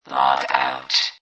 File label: Robot Voice "Log Out"; Robot Type 1 voice; Lynne Music